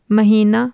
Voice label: "mahina" month, Urdu